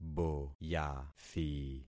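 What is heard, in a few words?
"boyathi", concatenated syllables; Affixing experiment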